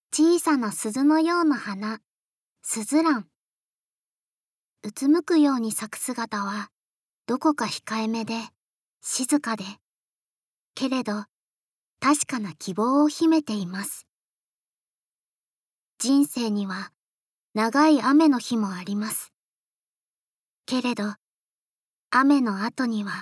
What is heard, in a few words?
Narration